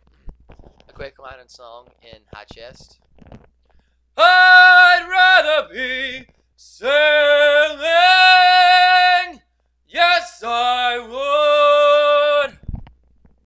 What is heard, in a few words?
High-Chest